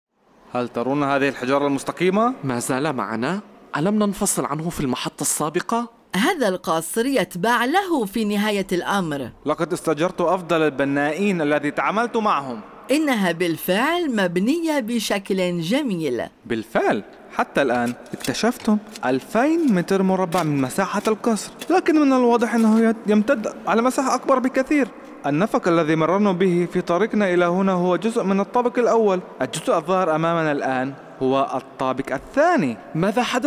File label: Banias Arabic